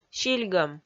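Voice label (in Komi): "сильгӧм", Komi, female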